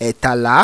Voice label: "ETALA", Yoruba